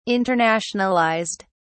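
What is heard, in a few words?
"internationalized", British English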